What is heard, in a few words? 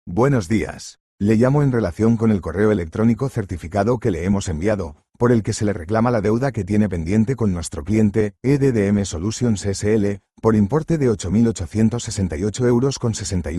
audio call